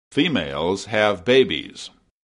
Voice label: FEMALE